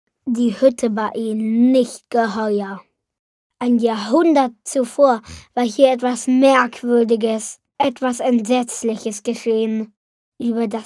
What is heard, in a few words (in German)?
Jungen; Die Hütte